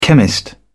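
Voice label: "chemist", British English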